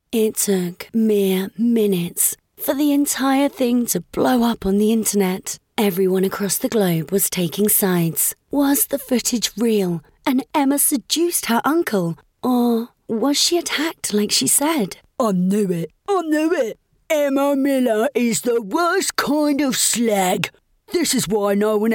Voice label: audiobook